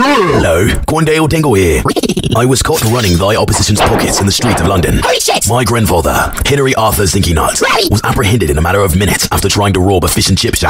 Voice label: british; quandale dingle

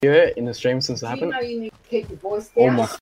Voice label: voice down

